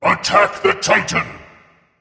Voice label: vox attack; smite; thanatos

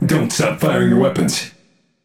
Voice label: vox emote; smite; ra; voicepack

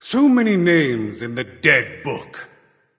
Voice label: kill streak, voicepack; smite; thoth

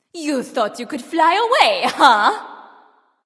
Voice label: taunt directed; jingwei

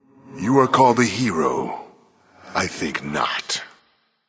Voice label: taunt directed; achilles

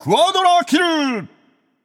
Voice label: alert notify kill, japanese; caster

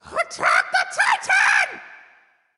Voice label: vox attack m; smite; thoth

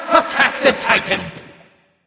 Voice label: vox attack m; smite; thoth

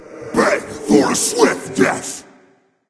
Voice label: taunt, voice; smite; voicepack; tyr

